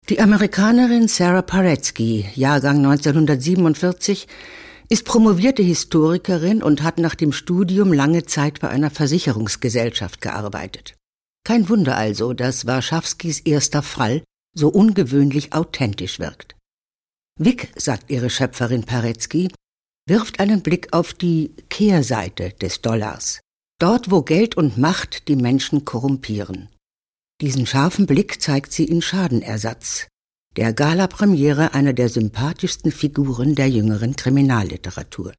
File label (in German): kommentar